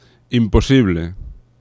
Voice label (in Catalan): "imposible", nasals; laboratori fonetica